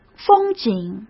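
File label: "风景", Mandarin Chinese; hsk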